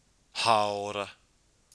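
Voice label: "haora", Maori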